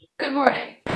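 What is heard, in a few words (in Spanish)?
Voice Acting, Spanish, female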